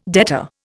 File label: "debtor", Indian